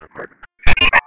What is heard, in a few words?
Police Sheriff Dispatch